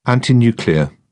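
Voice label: "anti-nuclear", British English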